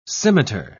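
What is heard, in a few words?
"scimiter", British English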